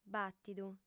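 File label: "bàtidu", female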